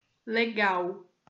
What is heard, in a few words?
"legal", portuguese